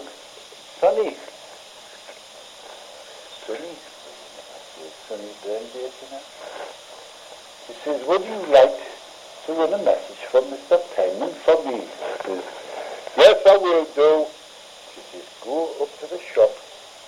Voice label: Newcastle Tyneside North East, english accents; Geordie